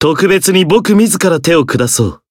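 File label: Voice encounter, Japanese; zeviro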